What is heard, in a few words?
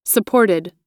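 "supported", US English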